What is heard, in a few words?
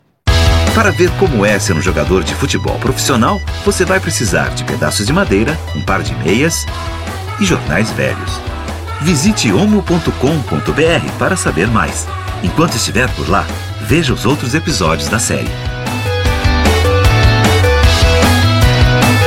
Portuguese Brazilian